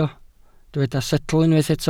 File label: "setl", Welsh